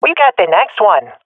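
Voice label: round lost, swat female; Counter Strike Model Pack; CS:GO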